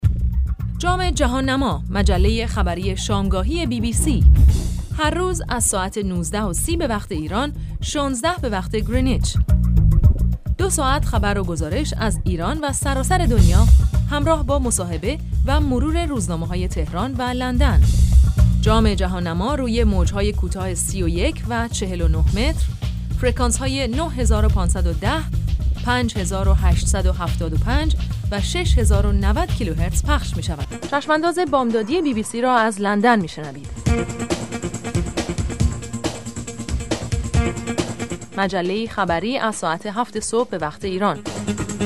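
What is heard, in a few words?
Farsi Female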